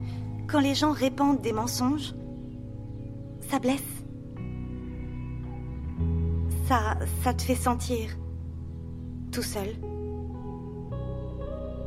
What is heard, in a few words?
DUBBING; voice-talent; Starring Natalia Dyer; Yes God Yes